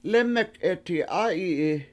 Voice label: lEmek7eti7A7yi7i I Caught A Cold, Washo

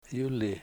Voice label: he's dead, Washo; yuli

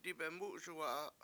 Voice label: "dibemUUSuwa7a" I spit over there, Washo

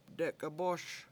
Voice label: "dek7ebOOS", Washo; spoon it in

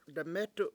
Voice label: "demEtu7" popsicle, Washo

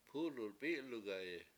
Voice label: "pU7lu7 p7I7lugayi", washo; car rolled over

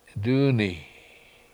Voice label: "dUUni7", Washo; Golden Brodea

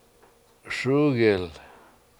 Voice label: "SUUgil", Washo; Sunflower

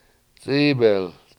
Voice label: "c7IIbel", Washo; Louse

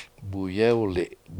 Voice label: "buyEwli7" Swamp Onion, Washo

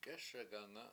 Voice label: "k7ESegAnga7", washo; start living

